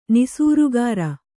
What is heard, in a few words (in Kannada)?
"ನಿಸೂರುಗಾರ", Kannada